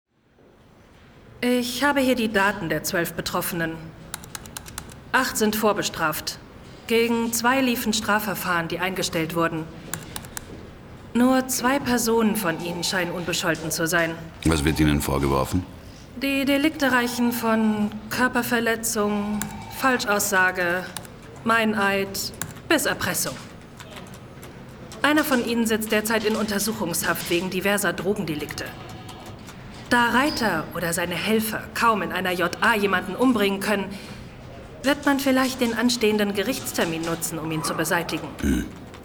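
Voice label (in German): Hörspiel, Kommissarin; Krimi; Weihnachtsinferno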